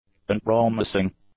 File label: "unpromising", english; adjectives